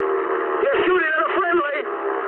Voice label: eng player friendly fire mood high, speech eng; War Thunder